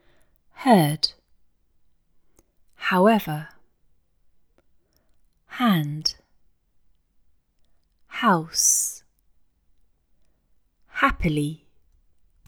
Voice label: Russian, British accent; English Pronunciation Errors made by Russian Speakers